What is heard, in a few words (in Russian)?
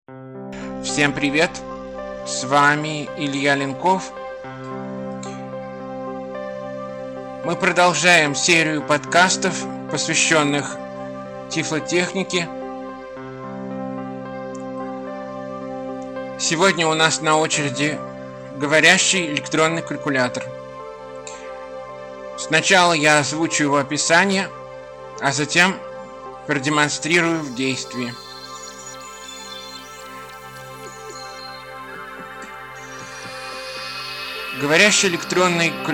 demonstraciya elektronnogo govoryashego kolkulyatora